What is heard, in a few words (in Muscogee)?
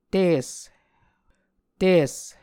"tis", Muscogee